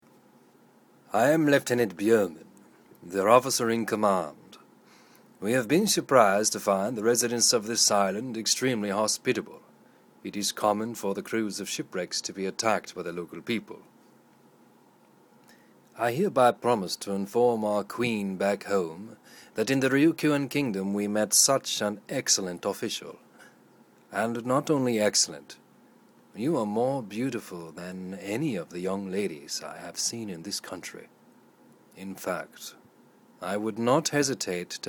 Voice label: british